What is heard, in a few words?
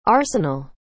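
"arsenal", English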